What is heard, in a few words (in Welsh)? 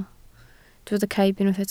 "caib", Welsh